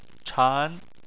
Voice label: "chan2", chinese